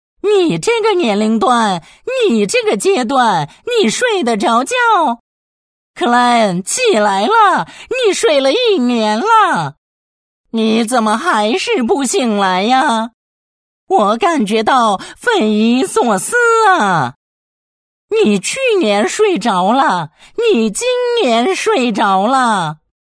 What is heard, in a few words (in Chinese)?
男, 人物角色, 模仿, 声音百变 幽默搞怪; 韩美娟